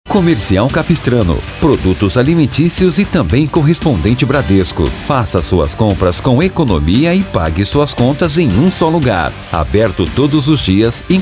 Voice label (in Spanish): COMERCIAL; CAPISTRANO